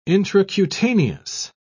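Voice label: "intracutaneous", British English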